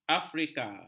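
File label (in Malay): "afrika", Malay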